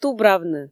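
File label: "тубравны", Komi, female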